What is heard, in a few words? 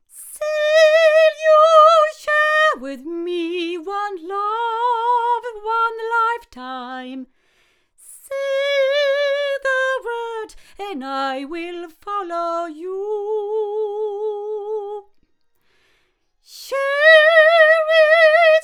Avantone BV-1 8 female